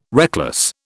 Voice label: "reckless", British

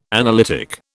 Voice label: "analytic", British

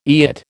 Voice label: "eyot", American

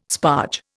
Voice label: "sparge", Indian

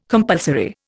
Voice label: "compulsory", Indian

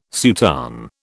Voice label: "soutane", British

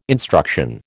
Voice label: "instruction", American

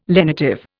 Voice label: "lenitive", Indian

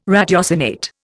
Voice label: "ratiocinate", Indian

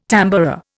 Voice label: "tamboura", Indian

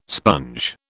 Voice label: "sponge", British

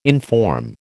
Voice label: "inform", American

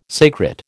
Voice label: "sacred", American

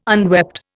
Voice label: "unwept", Indian

